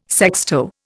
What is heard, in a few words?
"sexto", Indian